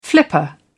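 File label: "flipper", British English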